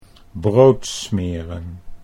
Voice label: "brood smeren", Dutch

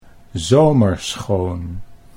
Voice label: "zomerschoon", Dutch

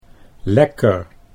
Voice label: "lekker", Dutch

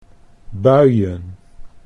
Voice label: "buien", Dutch

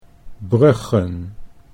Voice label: "bruggen", Dutch

